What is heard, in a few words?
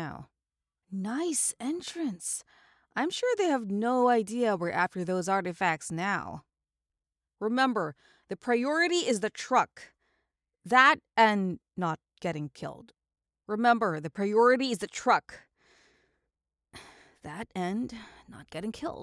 Female Coordinator